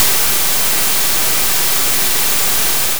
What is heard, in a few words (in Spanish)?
k pvadd ruido, Vocoder